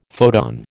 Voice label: "photon", American

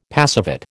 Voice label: "passivate", American

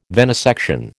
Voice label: "venesection", American

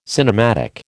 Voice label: "cinematic", American

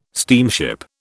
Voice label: "steamship", British

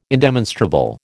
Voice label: "indemonstrable", American

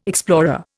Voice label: "explorer", Indian

